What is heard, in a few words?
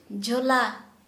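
Santali female bag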